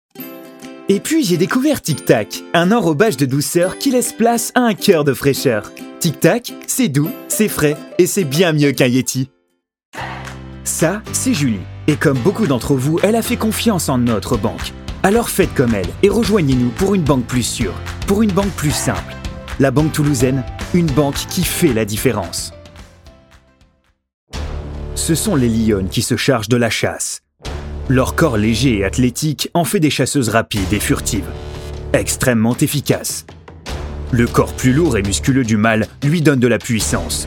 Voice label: French M Commercial; voice over